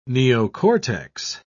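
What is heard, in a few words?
"neocortex", British English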